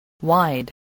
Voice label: "wide", voice, english